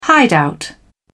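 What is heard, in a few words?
"hideout", English, British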